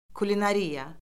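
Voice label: "kulinariya", Azerbaijani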